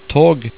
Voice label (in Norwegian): "tog", Norwegian